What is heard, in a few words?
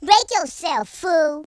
come on then, Gangsta; speech